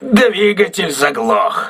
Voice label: engine damaged, crew ships russian